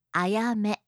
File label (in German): "ayame", japanische maedchennamen